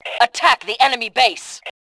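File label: attack base, voice Fem; Tribes2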